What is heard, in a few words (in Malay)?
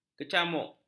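"kecamuk", Malay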